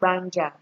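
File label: "gbanja", Nigerian Pidgin, female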